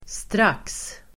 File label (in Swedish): "strax", Swedish